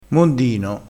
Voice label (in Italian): "mondino", crespinese